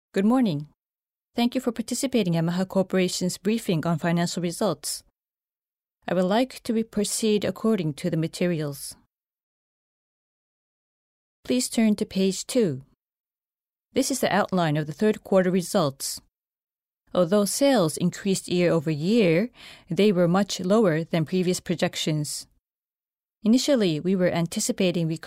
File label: english